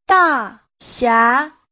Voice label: Da Xia Chinese